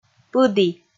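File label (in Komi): "пыді", Komi, female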